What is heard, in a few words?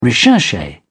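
"recherche", British English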